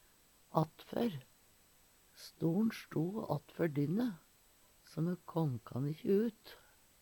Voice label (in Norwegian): "attfør", Numedalsmål; framom, framfør; Preposisjon; StoL`n sto attfør dynne so me kåm kan ikkje ut.